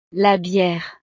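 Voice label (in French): "la biere", French; snacks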